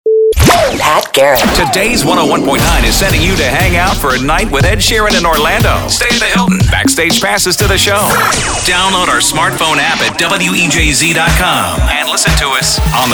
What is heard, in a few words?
VO Demo